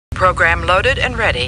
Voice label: Computer Voice; Star Trek; StarTrekLCAR